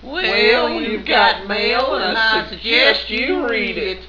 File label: Hillbilly message; bound to annoy co-workers!